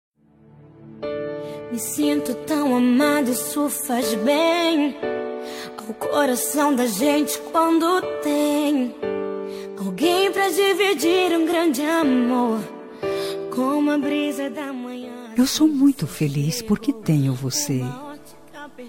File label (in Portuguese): dn gospel fem namorado, dia dos namorados para namorado evangelica voz feminina